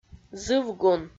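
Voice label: "зывгун", Komi-Zyrian, female; audio dict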